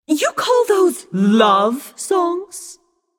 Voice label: taunt directed; apollo; smite; voicepack; izanami